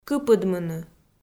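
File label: "кыпыдмыны", Komi, female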